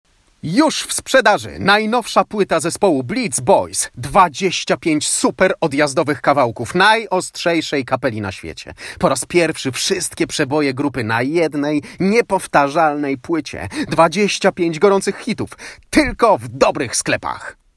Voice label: young dynamic Polish voice; young and dynamic Polish voice artist, 20-30; Celebrity